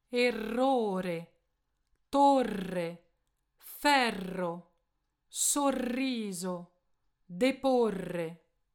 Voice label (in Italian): R polivibrante doppia